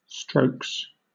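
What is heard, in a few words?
"strokes", British English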